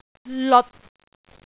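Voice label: "leot6", Cantonese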